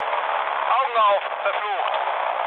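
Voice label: German player friendly fire mood low, speech; War Thunder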